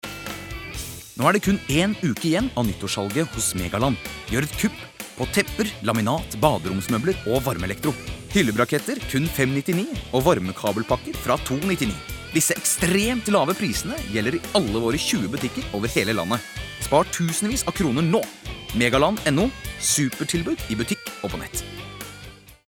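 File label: Norwegian hard sell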